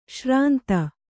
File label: "ಶ್ರಾಂತ", Kannada